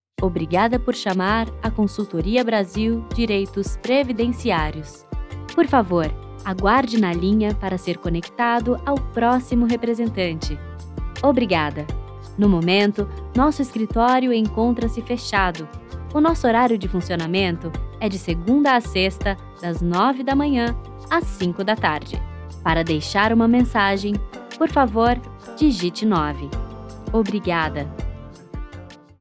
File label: portuguese brazilian ivr